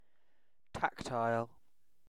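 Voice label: "tactile", British English; pronunciation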